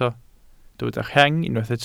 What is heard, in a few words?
"rheng", welsh